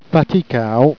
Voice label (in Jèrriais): "fait caud", Jèrriais